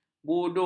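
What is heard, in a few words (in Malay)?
"budu", Malay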